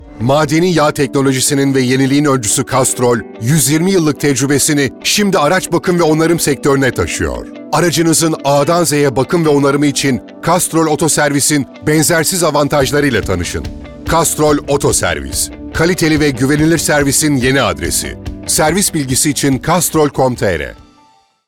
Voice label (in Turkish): enerjik tempolu, seslendirme